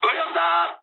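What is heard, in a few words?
Japanese right wing mood med; War Thunder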